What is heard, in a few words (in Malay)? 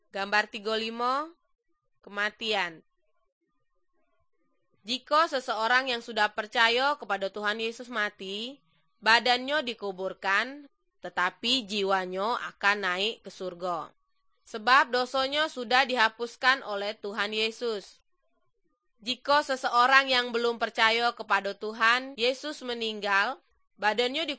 good news malay jambi